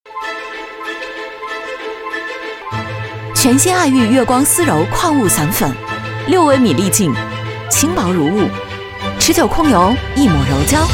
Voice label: 女 广告 散粉 化妆品 TVC; 艾遇

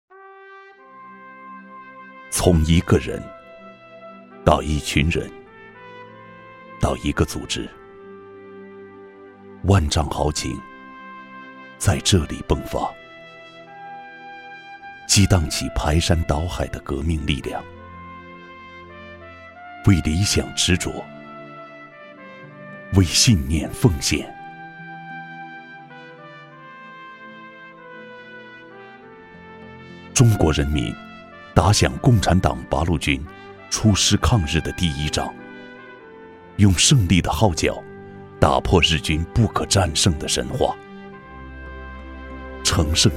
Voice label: 男 独白讲述 讲述感; 红色山西

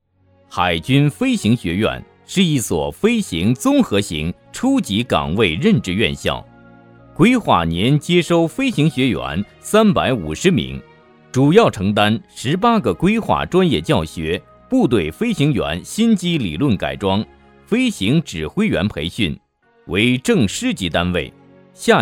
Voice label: 男 专题, 国语男声配音; 抒情; 海军飞行学院

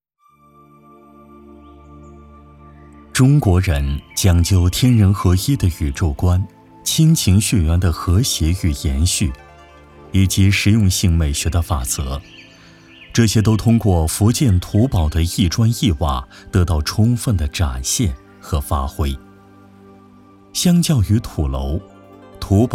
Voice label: 男 风光纪录; 土堡

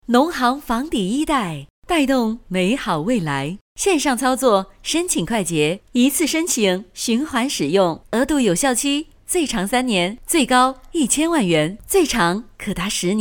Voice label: 女 农行广告 温柔女声

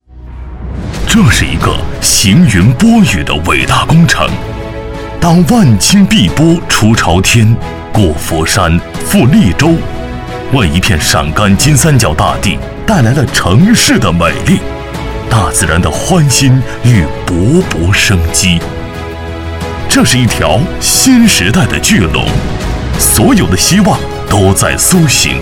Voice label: 男 宣传 水厂工程

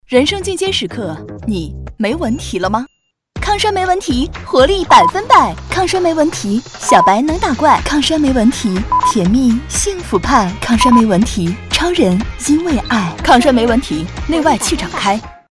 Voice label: 女 独白; 抗衰没-纹-题

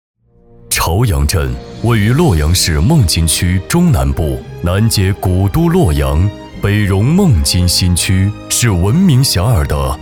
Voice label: 男 专题 大气浑厚 数字化; 朝阳数字化

